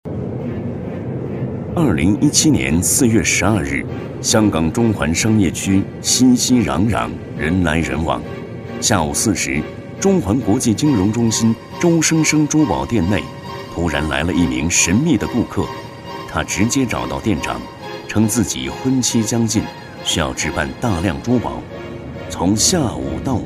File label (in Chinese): 男,专题,神秘探案